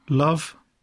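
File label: "love", English; poitiers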